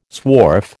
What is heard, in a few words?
"swarf", American